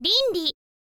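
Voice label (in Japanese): "rinri", Japanese, girl; voice; info girl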